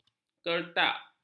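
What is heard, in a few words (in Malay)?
"kertak", Malay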